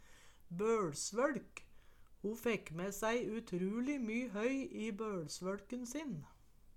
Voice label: boLsvoLk, Numedalsmål

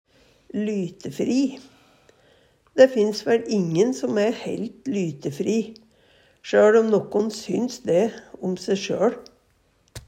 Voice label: lytefri, Numedalsmål

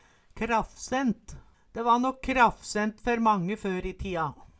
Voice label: "krafsent", Numedalsmål

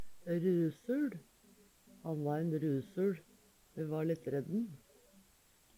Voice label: rusul, Numedalsmål; Han va eil rusuL. Me va litt redd`n.; Substantiv hankjønn; Veggli

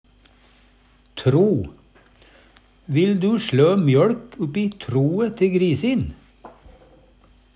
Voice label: grisetro, Numedalsmål; Vil du slø mjøLk uppi troe te grisin?

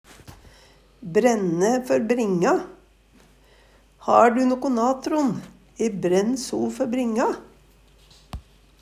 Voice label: brenne for bringa, Numedalsmål

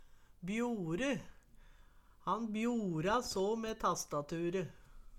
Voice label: bjore, Numedalsmål; Han bjora så me tastature

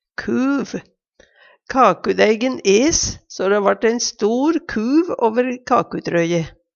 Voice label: "kuv", Numedalsmål

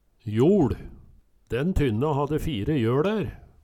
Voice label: joL, Numedalsmål

